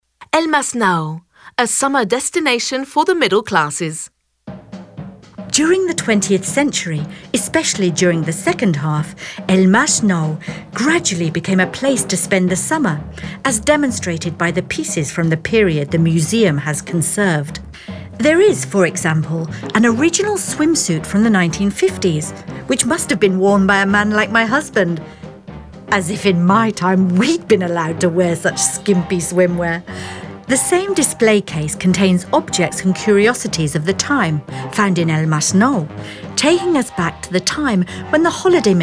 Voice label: audioguia english